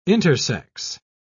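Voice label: "intersex", British English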